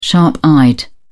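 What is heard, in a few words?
"sharp-eyed", British English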